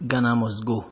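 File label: "ghana must go", Nigerian Pidgin, male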